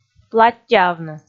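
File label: "платтьӧавны", Komi, female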